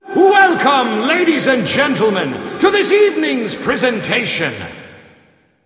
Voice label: Announce; Barnes The Stage Manager